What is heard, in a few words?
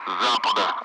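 Speech Sound Russian Common Allied Flight; DCS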